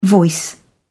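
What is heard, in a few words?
"voice", British English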